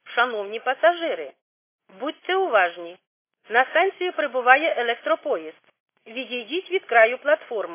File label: khs st female, subway station